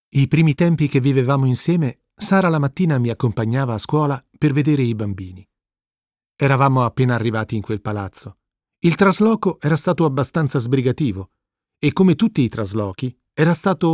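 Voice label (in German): Italienisch, Kommentar Sanft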